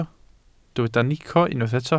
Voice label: "nico", Welsh

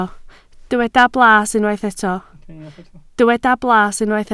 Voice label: "blas", Welsh

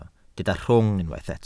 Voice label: "rhwng", Welsh

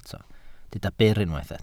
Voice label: "byr", Welsh

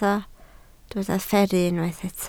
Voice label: "fferru", Welsh

